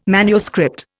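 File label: "manuscript", Indian English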